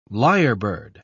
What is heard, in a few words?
"lyrebird", pronounce